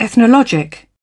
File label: "ethnological", British